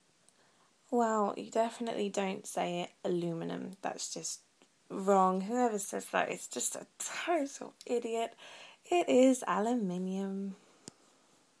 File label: pronunciation of aluminium